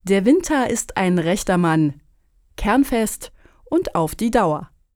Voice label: german folk saying winter